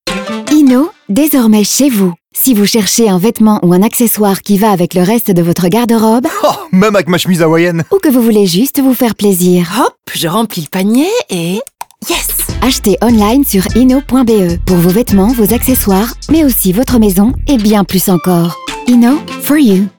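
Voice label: radio, french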